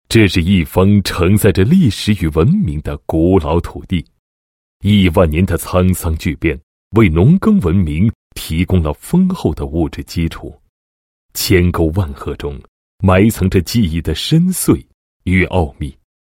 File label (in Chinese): 男 医院宣传, 医院宣传片解说词; 阜德医院